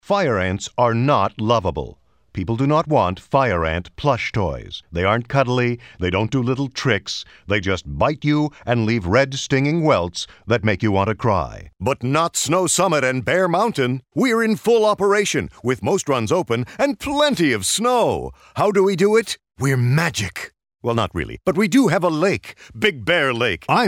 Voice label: commercials